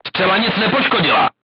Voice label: voice message armor not pierce, crew tanks czech